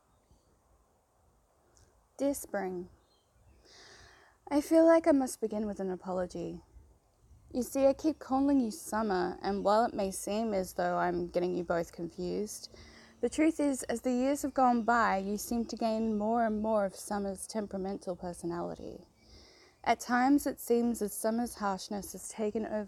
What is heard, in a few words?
F4A Love Letter Reminiscing; Dear Spring; Allegory; Old Lover; Changes Over Time; Romance Ended